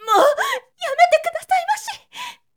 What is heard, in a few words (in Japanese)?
zyosei mouyametekudasai